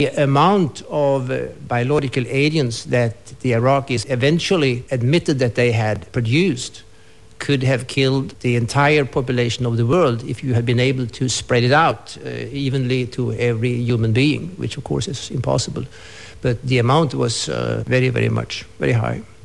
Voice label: ESL Swedish, Non-Hispanic Non-Native Speakers, dialect